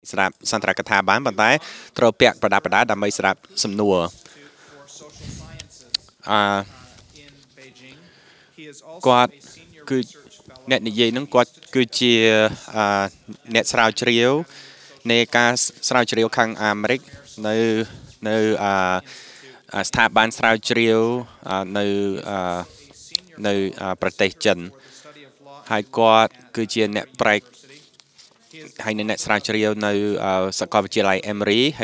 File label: Cambodian Session, khmer; iclrs symposium 2009